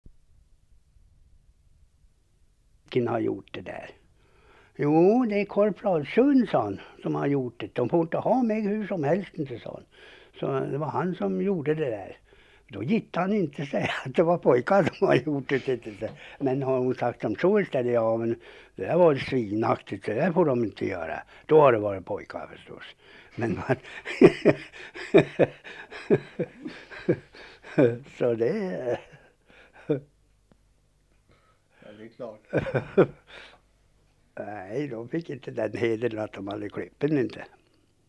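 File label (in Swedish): Funbo Lagga Uppland, dialekter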